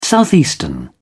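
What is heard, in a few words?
"southeastern", English, British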